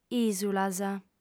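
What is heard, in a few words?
"ìsulas", lingua sarda, female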